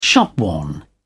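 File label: "shopworn", British English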